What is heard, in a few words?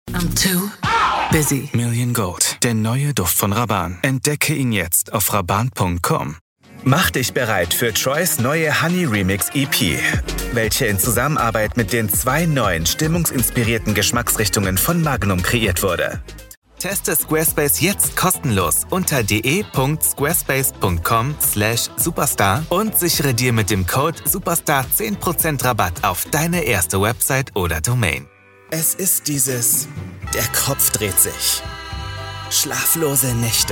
german voice commercial montage